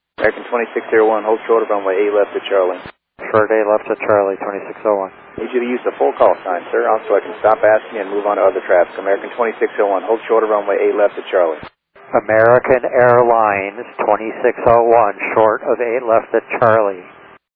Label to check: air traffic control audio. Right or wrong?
Right